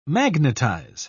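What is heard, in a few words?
"magnetise", British English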